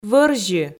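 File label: "вӧрзьы", Komi, female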